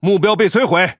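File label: Speech Pilots Bomber Tangodown, Chinese; World Of Warships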